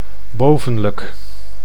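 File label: "bovenlijk", Dutch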